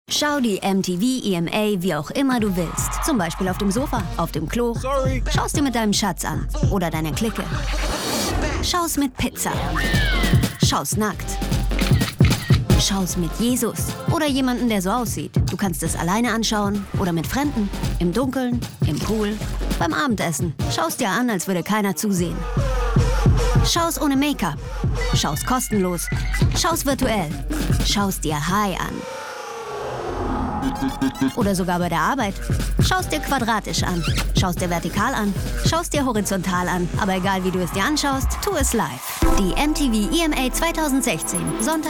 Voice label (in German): werbung, de-de